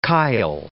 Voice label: "chyle", English